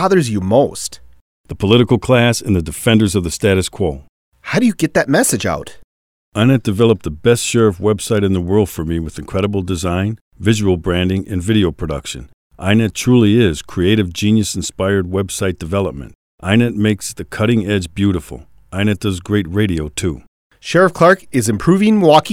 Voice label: Gun Talk; Sheriff